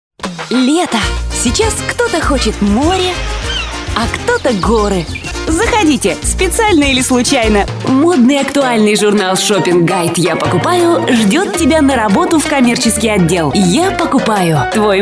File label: dictor